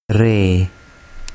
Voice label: "re", urdu

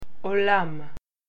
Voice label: "world", Hebrew

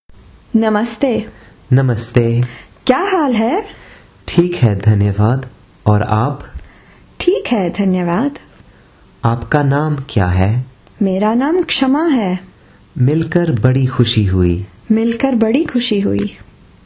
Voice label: Dialogue, hindi

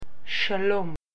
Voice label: "shalom", Hebrew

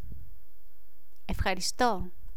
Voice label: efcharisto, greek

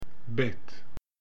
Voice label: "bet", Hebrew

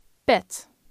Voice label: pet, dutch

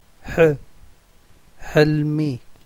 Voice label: 'h, tigrinya, vowels

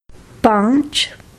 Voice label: "Five", hindi

